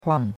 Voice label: "huang4", chinois; Cours de Chinois

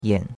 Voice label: "yan3", chinois; Cours de Chinois

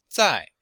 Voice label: "zai4", chinois; Cours de chinois; Leçon4

